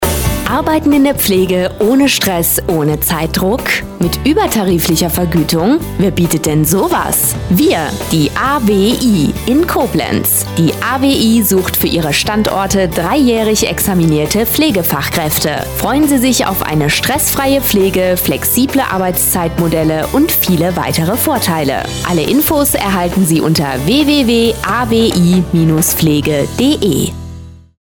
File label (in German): werbespot frau; AWI ambulante Intensivpflege